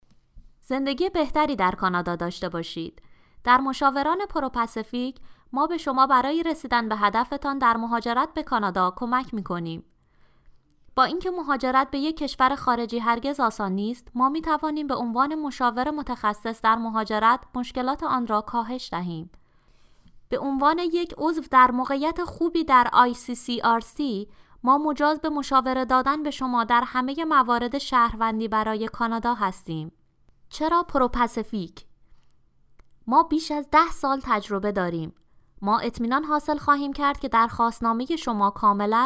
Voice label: Persian-Farsi